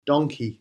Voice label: "donkey", English